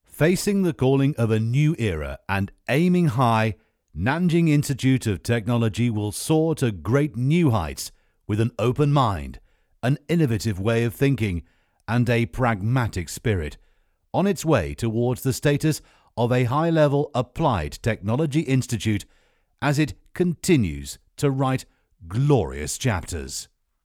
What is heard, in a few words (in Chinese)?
解说词 英语; 南京工程学院